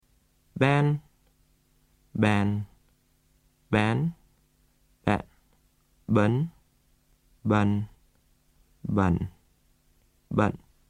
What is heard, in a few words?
ban 8 ways, vietnamese